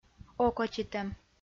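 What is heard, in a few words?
"окотитӧм", Komi, female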